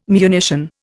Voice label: "munition", Indian